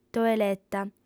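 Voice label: "toeleta", female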